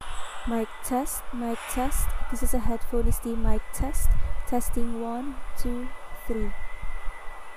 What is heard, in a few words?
Noisy; Razer Kraken X Lite